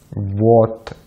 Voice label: "вот", Russian; Consonantes en ruso; easypronunciation

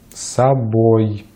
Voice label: "собой", Russian

